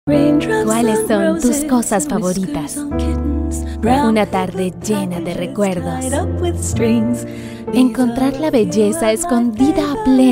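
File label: comercial, Venezuelan Spanish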